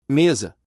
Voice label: "Mesa" en portugues

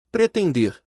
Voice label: Pretender en portugues

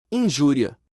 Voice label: Injuria en portugues

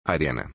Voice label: Arena en portugues

